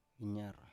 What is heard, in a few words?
"inyar", Biak